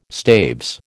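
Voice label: "staves", American English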